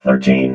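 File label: "thirteen", robot voice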